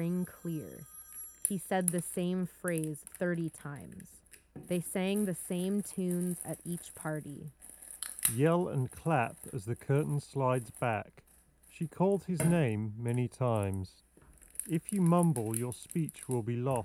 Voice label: JBL Quantum 50 Office microphone